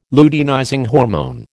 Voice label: "luteinizing hormone", American